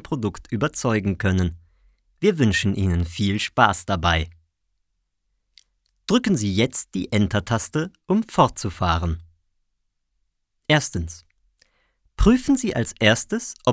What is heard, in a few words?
e learning; sprecher